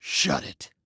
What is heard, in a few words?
"Quiet", Male